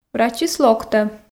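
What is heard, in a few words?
"врачыс локтӧ", Komi, female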